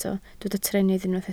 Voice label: "trennydd", Welsh